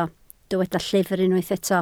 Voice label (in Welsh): "llyfr", Welsh